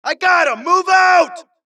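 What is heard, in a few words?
suppress, dialogue, Allies; mohaa; Mission 2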